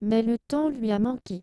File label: female Trans F1 to F2; LPC